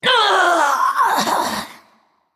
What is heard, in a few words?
Female Naga Death